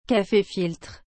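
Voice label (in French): "cafe filtre", French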